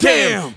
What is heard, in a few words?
"damn", expletives